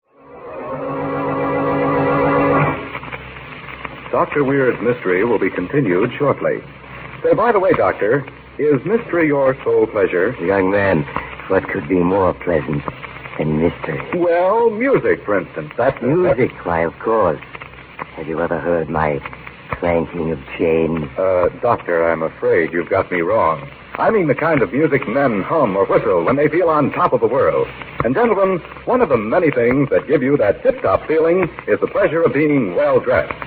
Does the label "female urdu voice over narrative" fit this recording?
No